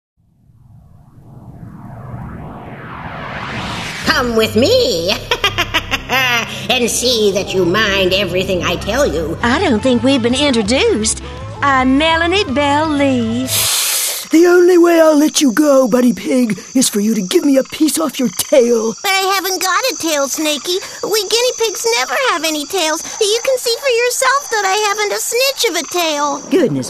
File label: animation montage